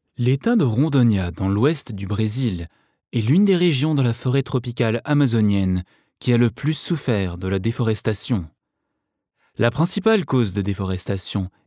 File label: french documentaries